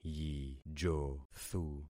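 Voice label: "yijothu", concatenated syllables; Affixing experiment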